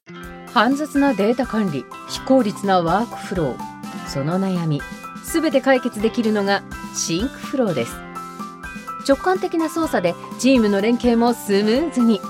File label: Japanese promos